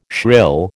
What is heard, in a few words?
"shrill", American